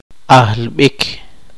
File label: poqomchi; pesadumbre